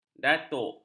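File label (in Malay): "datuk", Malay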